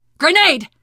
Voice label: grenade, teen girl voice; source engine; left 4 dead 2; survivor